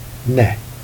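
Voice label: "net", Jèrriais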